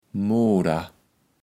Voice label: "Moder", Platt

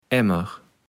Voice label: "Emmer", kaevels platt

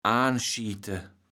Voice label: "aanschiete", kaevels platt

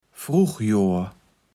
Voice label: "Fruchjohr", Platt

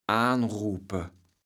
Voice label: "aanrupe", kaevels platt

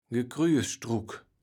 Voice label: "Gekrüjestruck", Platt

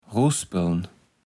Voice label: "ruspeln", Platt

